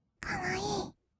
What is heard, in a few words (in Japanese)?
かわいい, kawaii voice; ASMR; 色々なワンショット